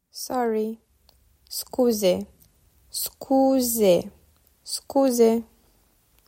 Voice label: "Sorry", Romanian

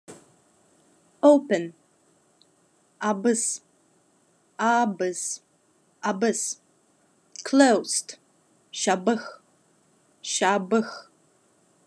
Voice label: Khakas Open closed

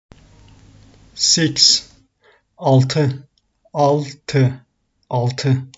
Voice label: six 6, turkish; the talking turkish phrasebook

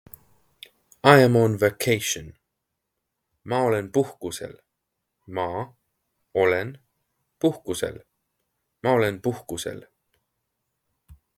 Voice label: I am on vacation, Estonian phrasebook; the talking estonian phrasebook

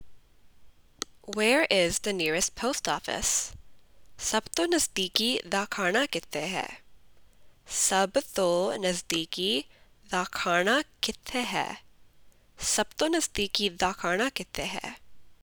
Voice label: Punjabi Where is the nearest post office

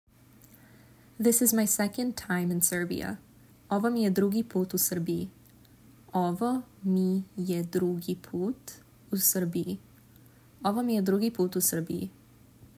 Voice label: "This is my second time in Serbia", Serbian

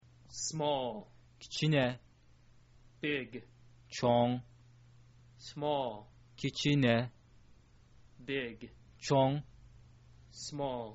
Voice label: small big, kyrgyz

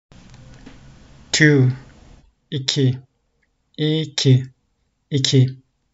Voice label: two 2, Turkish; the talking turkish phrasebook

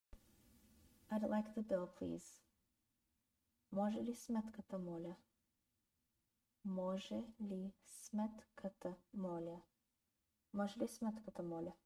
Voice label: I'd like the bill please, bulgarian; the talking bulgarian phrasebook

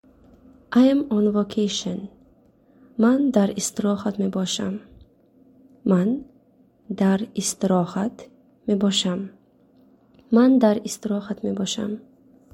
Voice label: I am on vocation, tajik

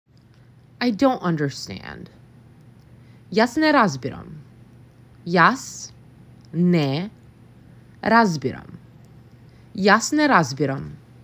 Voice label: I dont Understand, Macedonian; the talking macedonian phrasebook

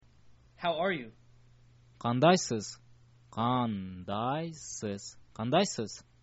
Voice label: how are you, kyrgyz

